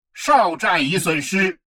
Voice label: advisor notification frontier outpost lost, chinese; Stellaris